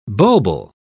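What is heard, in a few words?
"bulbil", British English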